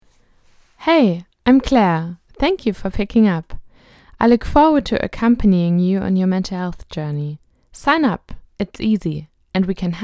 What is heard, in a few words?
Voicenote